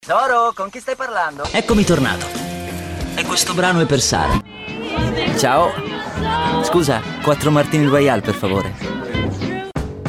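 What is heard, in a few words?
Italian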